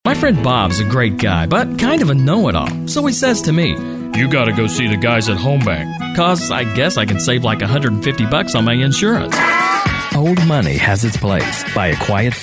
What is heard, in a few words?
Commercial, voice over